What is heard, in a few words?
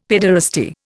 "pederasty", Indian; Dictionary